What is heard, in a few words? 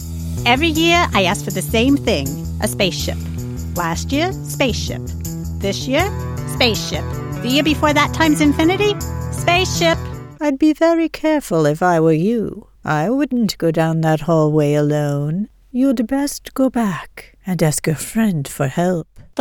Character